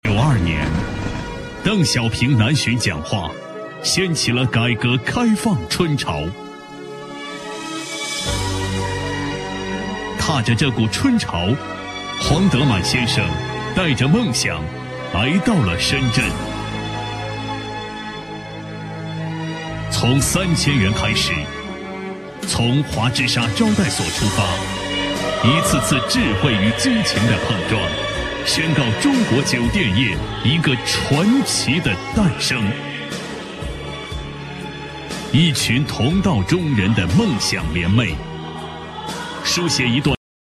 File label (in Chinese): 男40 专题 维也纳开篇, 国语男声配音